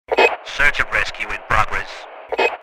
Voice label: Firefighter radio chatter search and rescue in progress